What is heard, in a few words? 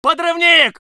voice sov infantry